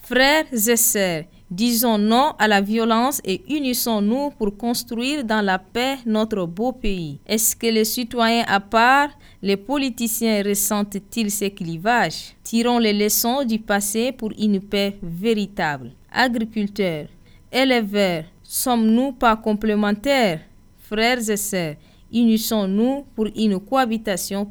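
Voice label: French Message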